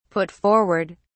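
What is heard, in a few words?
"put forward", British English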